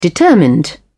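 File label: "determined", British English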